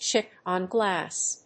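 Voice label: "CHIP-ON GLASS", English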